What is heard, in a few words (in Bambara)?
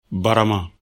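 "barama", Bambara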